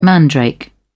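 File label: "mandrake", British English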